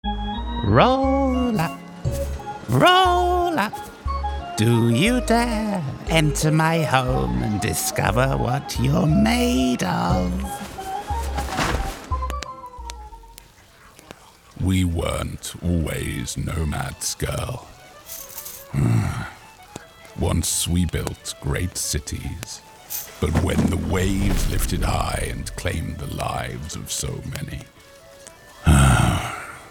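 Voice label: General Character, British Male Voice Actor, British RP voice; General Character Reel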